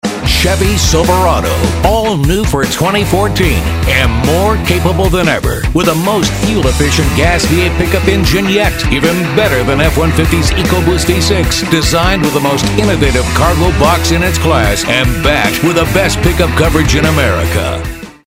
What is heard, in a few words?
tough announcer